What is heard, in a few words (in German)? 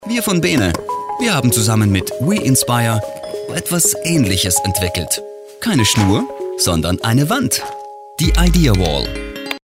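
Austrian, commentary, Freundlich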